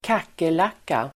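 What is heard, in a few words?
"kackerlacka", Swedish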